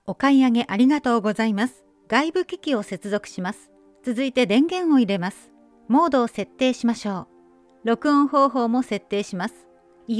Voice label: Japanese Manual, Japanese Female

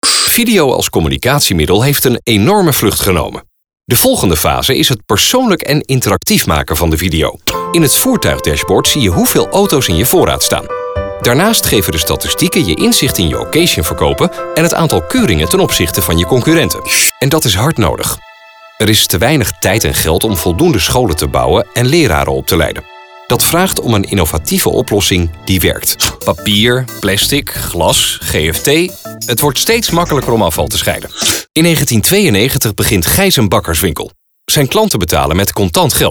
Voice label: Dutch Male Corporate

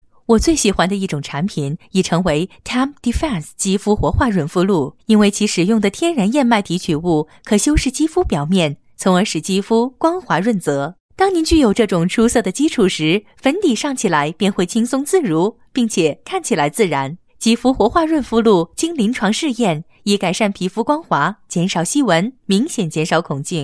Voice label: Commercial Mandarin Female

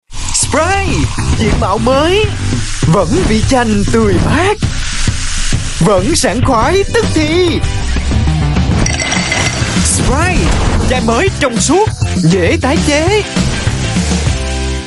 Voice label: South Vietnamese Male, voice samples; Sprite

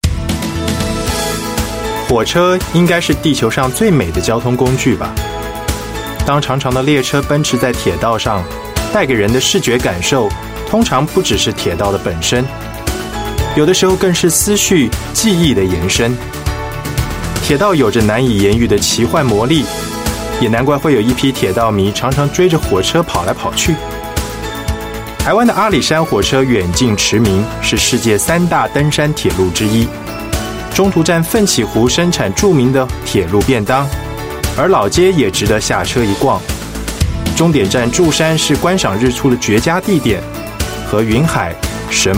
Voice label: Mandarin, China male

